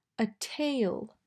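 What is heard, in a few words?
"A tail", English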